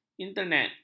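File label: "internet", Malay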